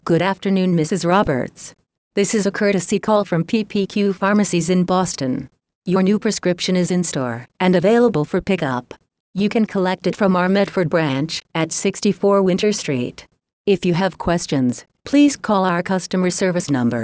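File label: American English, Sprachproben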